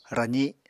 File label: "razi", Apatani